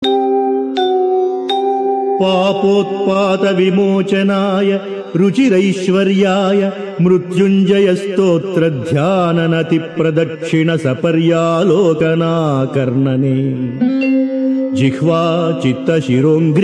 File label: Sanskrit; Sri Adi Sankaracharyas Sivananda Lahari; Adi Shankaracharya; श्रीशिवानन्दलहरी ४१